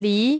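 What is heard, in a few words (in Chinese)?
"离" Chinese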